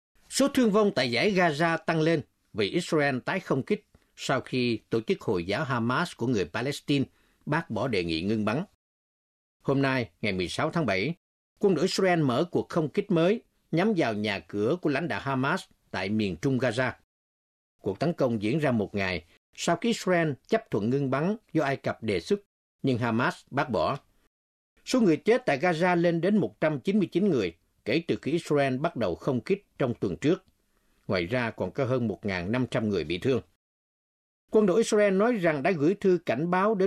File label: news